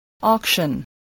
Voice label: "auction", english

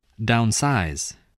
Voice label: "downsize", spelling

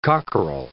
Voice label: "cockerel", English

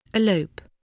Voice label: "elope", English

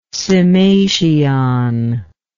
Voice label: "cymation", english

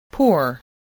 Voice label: "pour", English

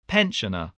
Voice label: "pensioner", English, voice

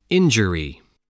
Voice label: "injury", voice spelling

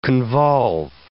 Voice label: "convolve", English

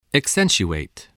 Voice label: "accentuate", English voice

